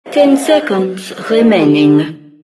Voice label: announcer count pick 10; diretide 2012